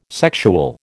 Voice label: "sexual", American

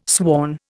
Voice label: "sworn", Indian

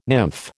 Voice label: "nymph", American

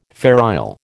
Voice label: "Fair Isle", American

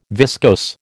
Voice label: "viscose", American

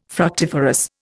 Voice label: "fructiferous", Indian

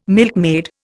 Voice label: "milkmaid", Indian

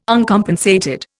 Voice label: "Uncompensated", Indian

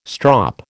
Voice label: "strop", American

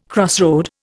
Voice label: "crossroad", Indian

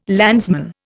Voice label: "landsman", Indian

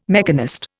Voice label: "mechanist", Indian

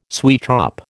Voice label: "sweetshop", American

